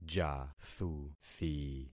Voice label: "jathuthi", concat syllables; Affixing experiment